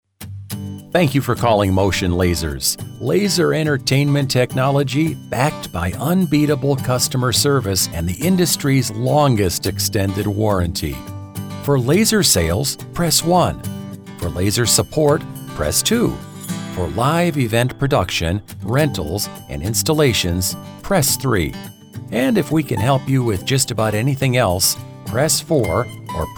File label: Phone